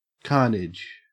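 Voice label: "carnage", Australian English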